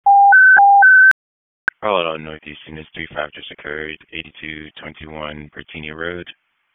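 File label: Police Northeast District Dispatch, Raleigh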